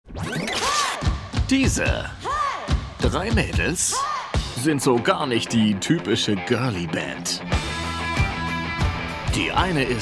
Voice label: station voice